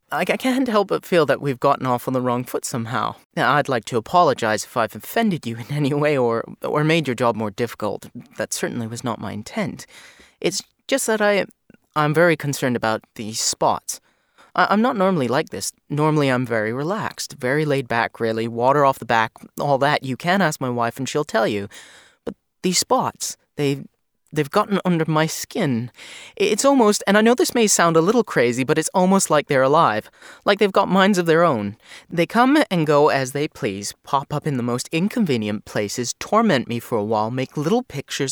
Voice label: Monologue